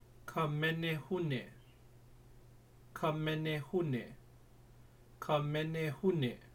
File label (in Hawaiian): Ka Menehune, hawaiian pronunciation; hawaiian pronunciation guide